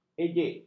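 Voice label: "ejek", Malay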